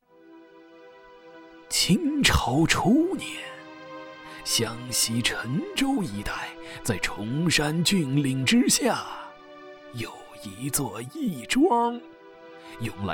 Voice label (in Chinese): 恐怖故事 鬼故事 有声书 BGM; 湘西辰州鬼故事